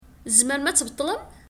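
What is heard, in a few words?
Kabyle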